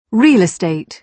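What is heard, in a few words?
"real estate", British English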